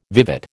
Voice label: "vivat", American

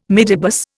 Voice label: "midibus", Indian

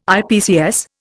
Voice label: "IPCS", Indian

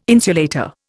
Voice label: "insulator", Indian

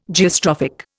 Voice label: "Geostrophic", Indian

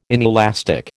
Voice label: "inelastic", American

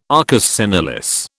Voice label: "arcus senilis", British

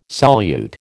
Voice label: "solute", American

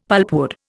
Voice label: "pulpwood", Indian; Dictionary

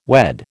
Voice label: "wed", American

